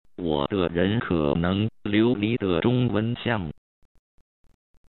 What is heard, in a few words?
chinese, synthesizer